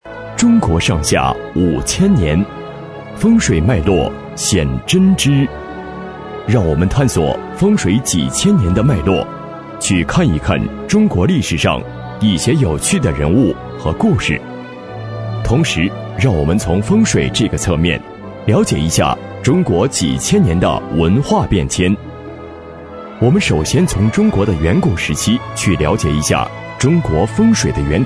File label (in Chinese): 男声; 风水脉络